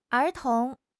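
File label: "er2 tong2", Chinese; HSK4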